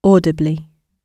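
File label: "audibly" British English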